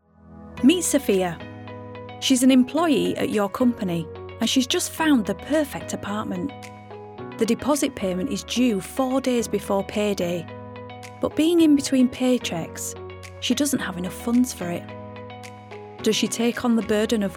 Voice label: Corporate Reel

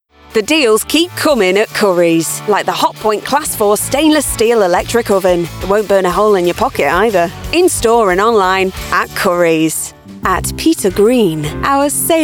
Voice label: Commercial

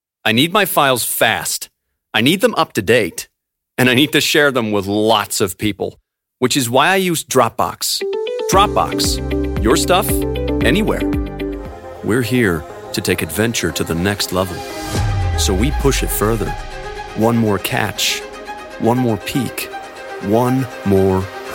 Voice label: Commercial

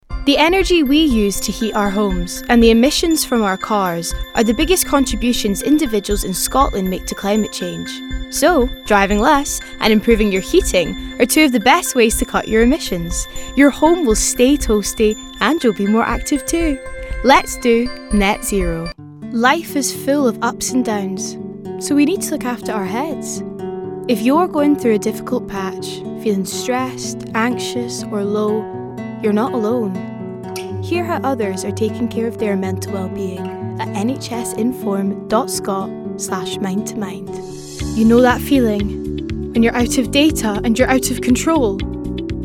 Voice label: COMMERCIALS

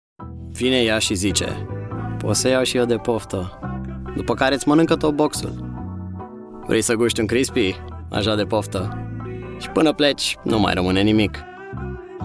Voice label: Romanian

